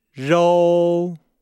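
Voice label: "rou", Chinese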